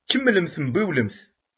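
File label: "Kemmlet mbiwlet.", Kabyle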